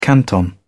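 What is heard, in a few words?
"canton", British English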